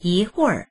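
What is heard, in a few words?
"yi2huir4", Mandarin Chinese; 一会儿; 少しの間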